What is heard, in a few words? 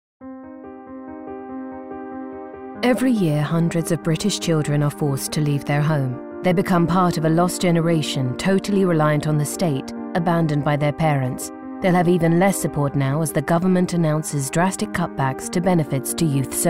narrative